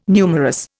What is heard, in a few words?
"numerous", Indian